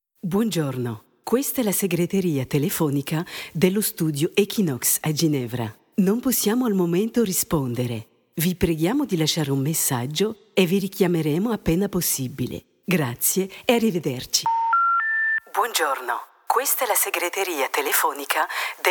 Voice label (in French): repondeur italien complet